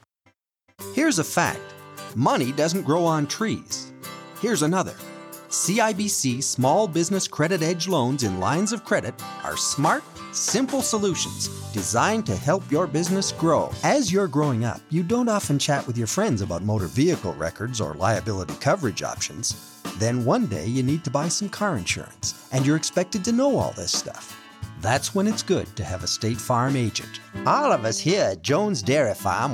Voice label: Commercial